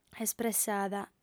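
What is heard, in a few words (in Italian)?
"espressada", lingua sarda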